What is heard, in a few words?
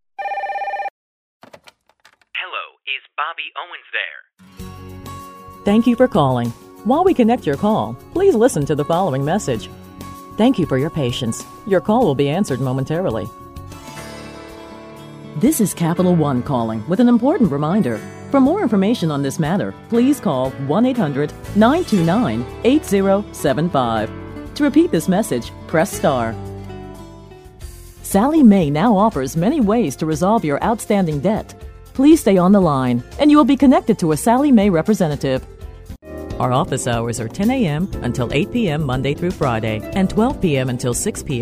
On Hold IVR